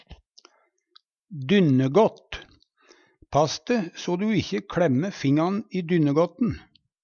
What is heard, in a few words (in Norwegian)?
"dynnegått", Numedalsmål; Spor i dørkarmen kallar vi dørgått/dynnegått.